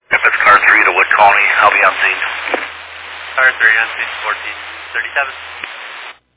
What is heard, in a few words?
Fire Dispatch